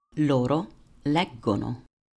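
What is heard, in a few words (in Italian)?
"leggono", Italian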